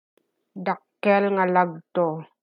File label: Ilocano